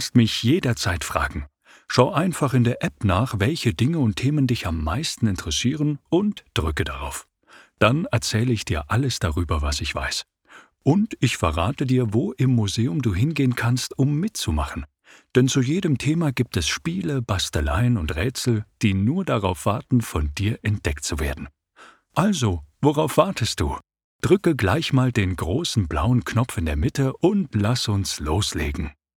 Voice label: Hoerprobe Audioguide Kindermuseum; Episch

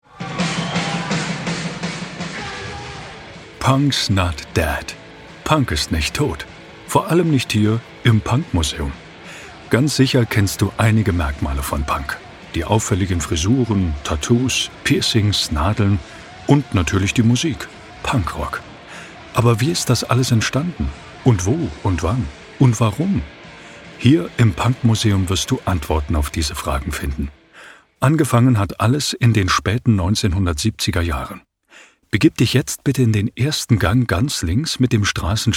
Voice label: Hoerprobe Audioguide; Punk Museum

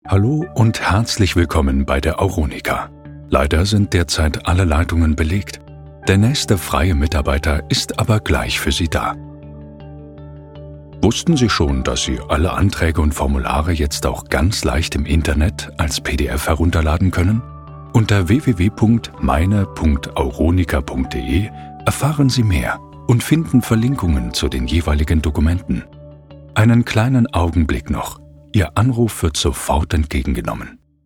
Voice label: Hoerprobe Telefonansage, Sprecher; Auronika

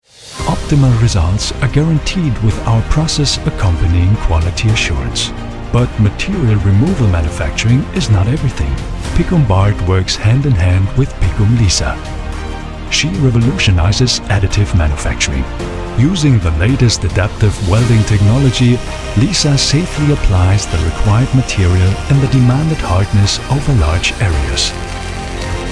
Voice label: Hoerprobe Englisch; Picum MT

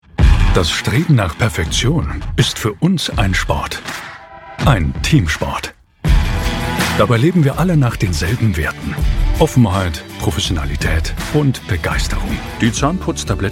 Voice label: professioneller sprecher imagefilm

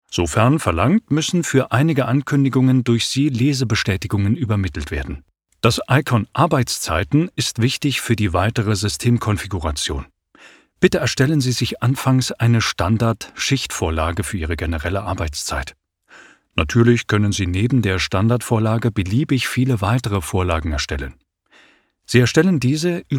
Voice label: sprecher e learning hörprobe screen cast; dealerdesk

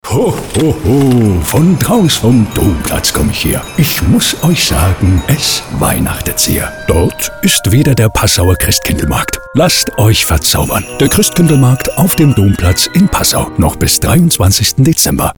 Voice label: Hoerprobe Funkspot Weihnachtsmann

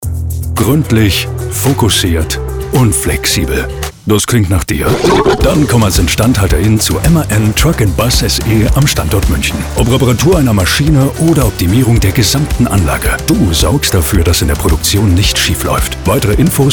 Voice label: Funkspot Recruiting; MAN Truck Bus

